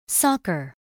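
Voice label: "soccer", English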